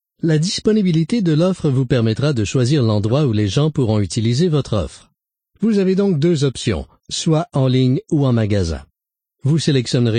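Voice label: French Canadian M eLearning, male; voice over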